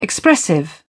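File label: "expressive", British English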